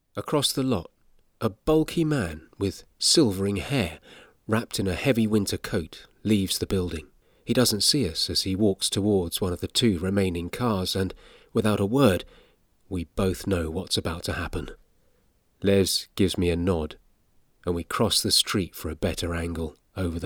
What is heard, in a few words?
Audiobook